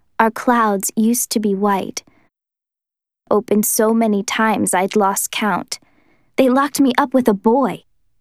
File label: Reading Female Girl